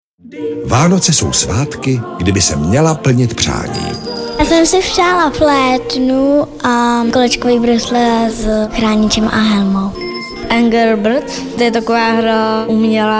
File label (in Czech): radiozurnal promo děti; CRo radiozurnal